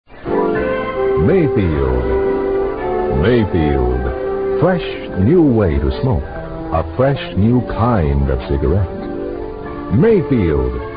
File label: Vintage Commercials; Mayfield Cigarettes